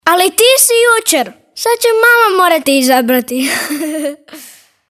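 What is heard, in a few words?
croatian male kid commercial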